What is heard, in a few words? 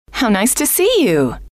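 "how nice to see you", female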